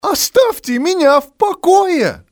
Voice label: leave me, male; XBOX; civ

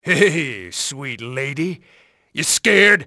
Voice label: scared, terrorists; Soldier Of Fortune II Double Helix; XBOX

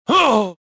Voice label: pushed, rebel; XBOX

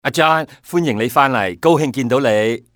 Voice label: "hey john", male; XBOX; civ

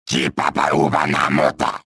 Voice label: chase, trandoshan; XBOX

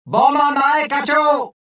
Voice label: suspicious, rodian

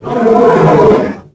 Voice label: wookie annoyed, creatures; Star Wars Obi-Wan; XBOX

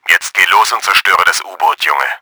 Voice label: DESTROY SUB, german; Battle Engine Aquila

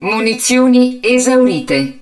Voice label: HUD AMMUNITION DEPLETED, Italian; XBOX; BattleEngineAquila

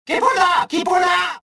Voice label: cover, rodian

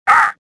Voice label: pain; XBOX; worker

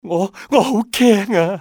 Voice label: scared, male; XBOX; civ

